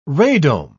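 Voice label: "radome", British English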